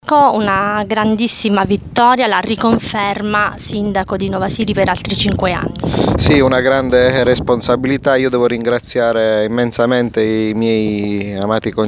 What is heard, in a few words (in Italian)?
Intervista; Stigliano